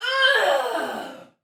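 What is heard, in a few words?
Patrol Spoken Soldier Female; Dialog; Barks; Battle; Sinecure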